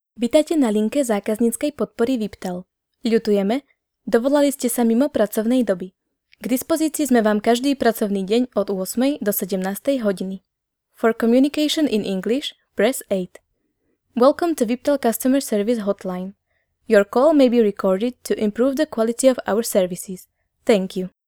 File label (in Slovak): hlas zena; VIPTel